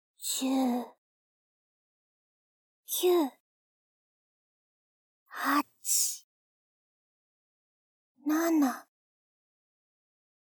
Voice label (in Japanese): シコシコパート OFF時用(設定:囁き右耳)